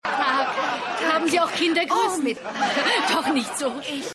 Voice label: Mutter

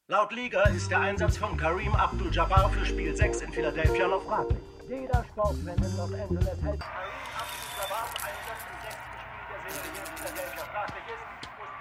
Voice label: Sportkommentator; Winning Time

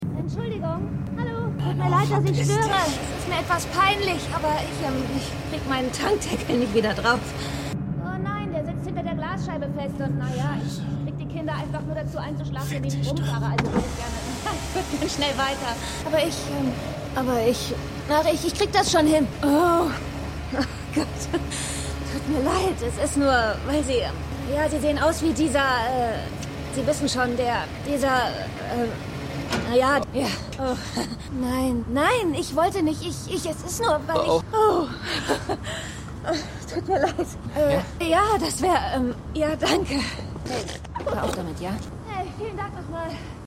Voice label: Junge Mutter; Slow Horses

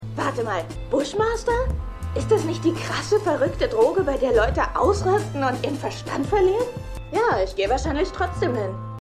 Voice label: Frau; Luke Cage

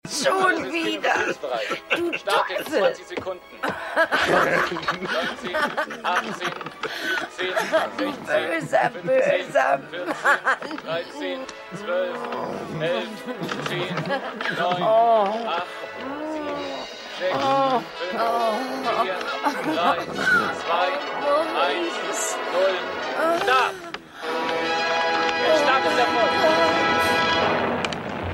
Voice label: Startkontrollesprecher; AusgerechnetAlaska

